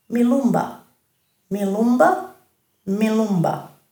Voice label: "milumba", Dhurga